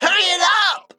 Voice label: Male Mech Emote Time